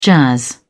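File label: "jazz", British English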